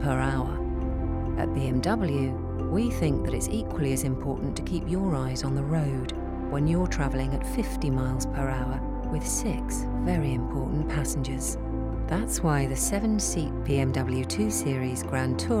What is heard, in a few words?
RADIO BMW HEAD UP DISPLAY FULL MIX FEMALE VO